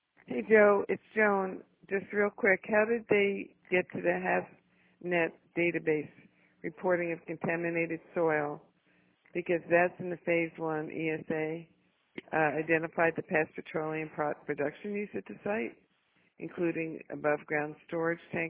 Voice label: voicemail; latest developments